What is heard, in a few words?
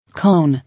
"Cone", English